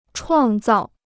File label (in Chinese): "创造", Chinese